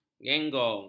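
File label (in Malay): "genggong", Malay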